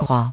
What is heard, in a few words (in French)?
"King", French; roi